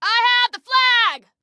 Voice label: Flag I Have, Female

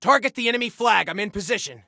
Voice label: Target Flag, Soldier Male

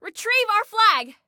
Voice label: Flag Retrieve, Female; Female 02

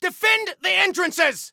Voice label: Defend Entrances, Soldier Male; Soldier Male 01

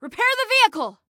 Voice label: Repair Vehicle, Female; Female 02

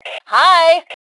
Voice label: Global Hi, Female; T2 Female 03

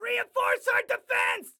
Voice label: Defend Reinforce, Excited Guy

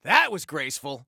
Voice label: Taunt Sarcasm, Soldier Male

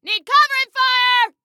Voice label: Need Cover, Female

